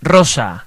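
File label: pink, Serbian; gcompris; colors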